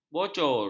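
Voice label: "bocor", Malay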